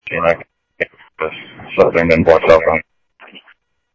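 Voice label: Mesa Police Department, scanner audio; MESA PD Superstn MesaPoliceDep; Mesa Simulcast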